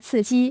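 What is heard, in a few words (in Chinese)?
"刺激" Chinese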